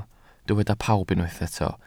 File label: "pawb", Welsh